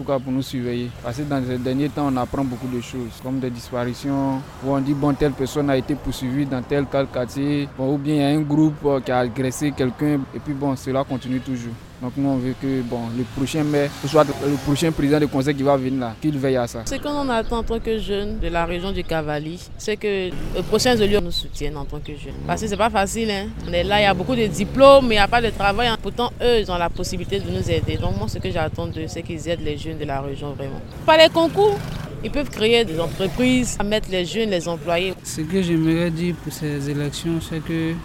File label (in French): vox pop attentes de la jeunesse de Guiglo